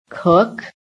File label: "COOK", american